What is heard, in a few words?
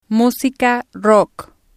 "musica Rock" Spanish